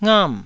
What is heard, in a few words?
"ngam4", Taiwanese